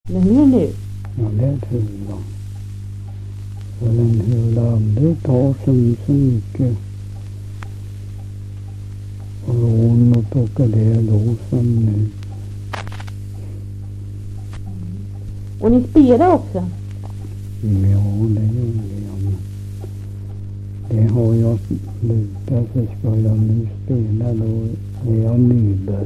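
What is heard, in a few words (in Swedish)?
Ramundeboda Närke, dialekter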